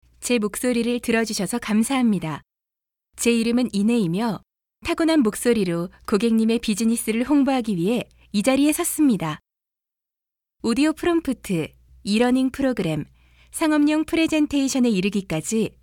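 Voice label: korean female narration; voice demos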